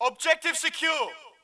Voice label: brit objective secure; dod; de dod caen